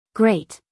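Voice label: "great", American English, female; everyone-can-use-english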